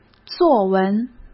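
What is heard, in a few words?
"作文", Mandarin Chinese; chinese-flashcards; hsk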